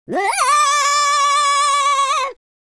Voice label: scared, Welsh; speech